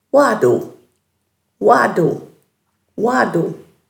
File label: "waadhu", Dhurga